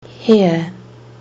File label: "hear", kiwi english